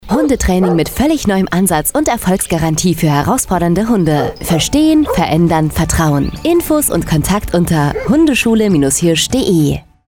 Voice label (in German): Funkspot